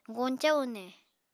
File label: "goñcañ une", Apatani